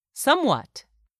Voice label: "SOMEWHAT", english; 3000 words